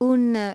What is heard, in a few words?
"one", masculine; iOS 4